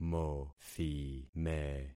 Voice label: "mothime", concat syllables; Affixing experiment

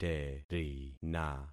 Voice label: "terina", concat syllables; Affixing experiment

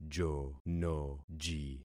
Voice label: "jonoji", concat syllables; Affixing experiment

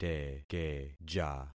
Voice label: "tekeja", concat syllables; Affixing experiment

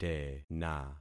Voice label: "tena", concat syllables; Affixing experiment

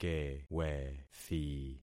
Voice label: "kewethi", concat syllables; Affixing experiment

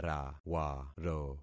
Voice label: "rawaro", concat syllables; Affixing experiment

